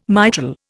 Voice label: "mitral", Indian